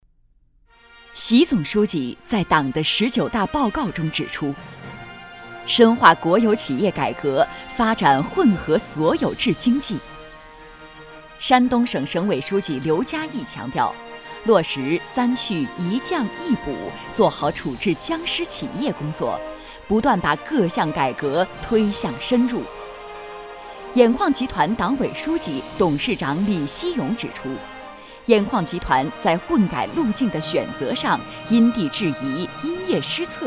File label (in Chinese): 女 纪实片; 山东省国企混改